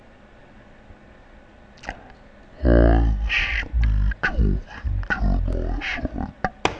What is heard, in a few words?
testing with an isight microphone